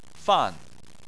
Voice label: "fan4", Chinese; Chinese Surnames